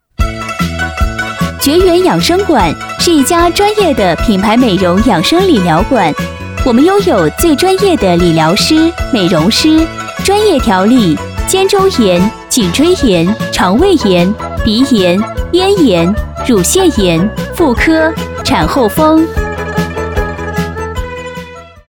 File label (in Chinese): 女4-广告-养生馆广告, 女